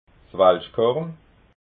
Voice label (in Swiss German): "walschkorn", alsace